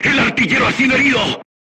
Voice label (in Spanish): voice message gunlayer wounded, Spanish